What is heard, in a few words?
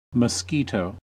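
"mosquito", American English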